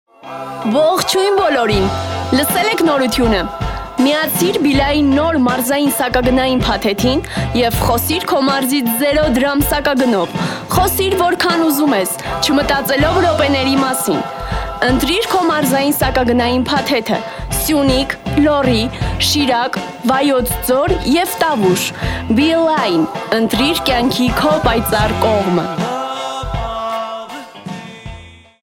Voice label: Armenian

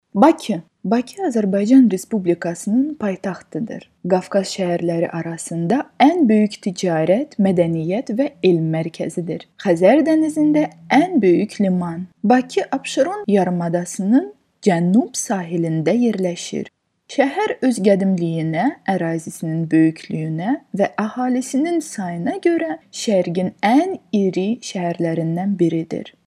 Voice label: Azerbaijani, golosa treki

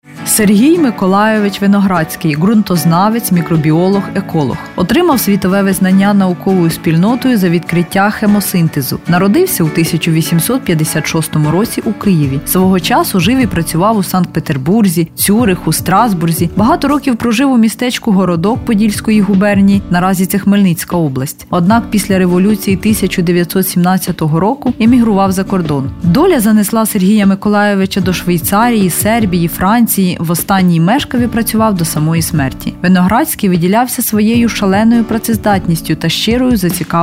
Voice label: Ukrainian female

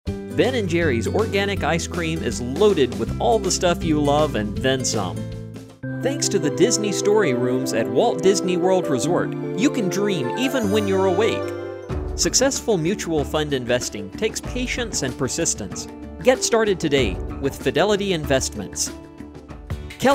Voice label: English - American

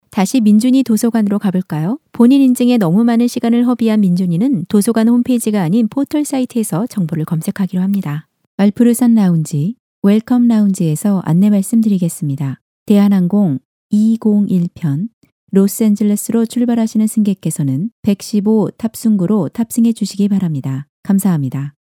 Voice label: Korean

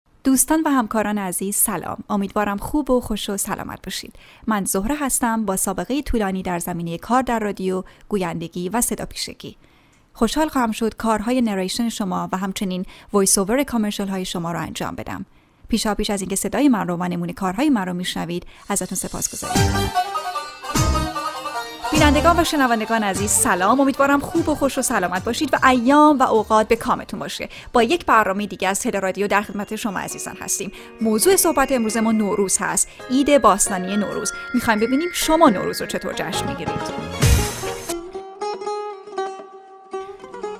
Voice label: Persian Farsi female

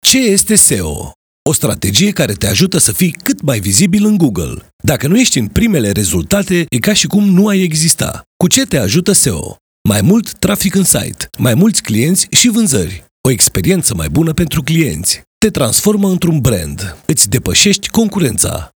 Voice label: Romanian

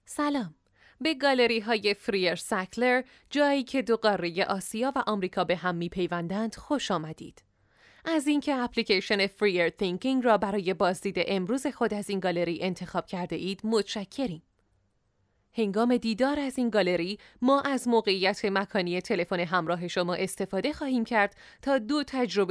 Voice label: Persian-Farsi female

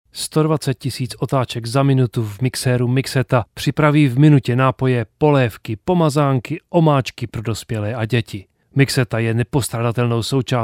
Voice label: Czech male

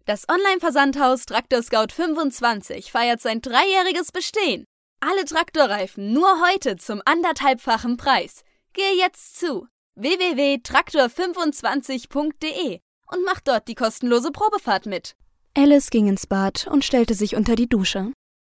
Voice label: German female

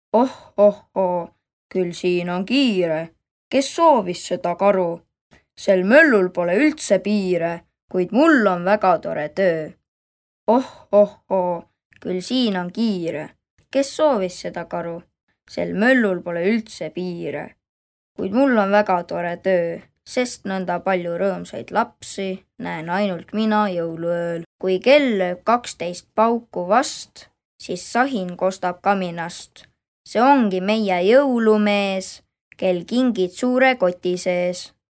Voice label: Boy - Estonian

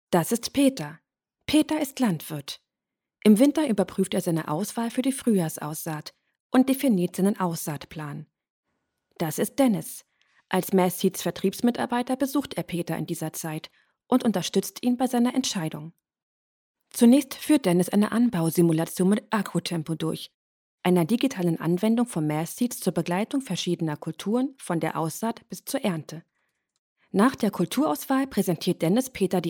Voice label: German female

